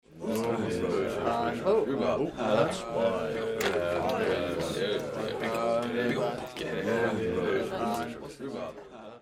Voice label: men talking